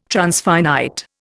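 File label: "transfinite", Indian English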